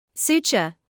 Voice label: "suture", medical English